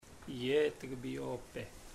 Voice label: Im not calling u, chamacoco; Puerto Diana